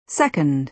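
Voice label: "second", American English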